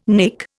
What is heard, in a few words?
"nek", Indian